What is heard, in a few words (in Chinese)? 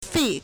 "fei4", Chinese